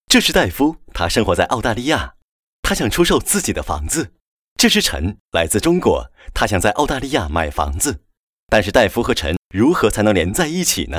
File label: chinese mandarin promos